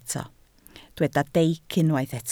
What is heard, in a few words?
"deic", Welsh